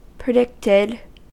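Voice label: "predicted", US English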